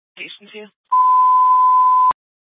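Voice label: Fire Dispatch, Scanner audio recording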